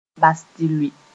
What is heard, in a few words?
"bas tɩ lʋɩ", moore; to abandon